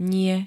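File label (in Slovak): "nie", Slovak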